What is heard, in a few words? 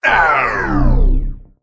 Male Mech Death